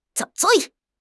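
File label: "zui3", Chinese; HSK; HSK3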